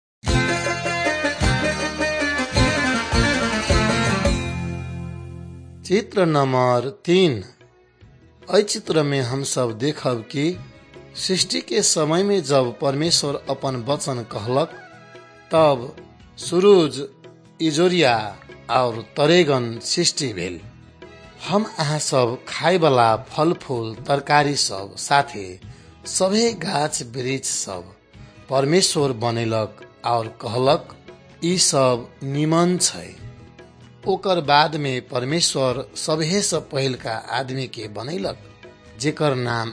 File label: maithili thetiya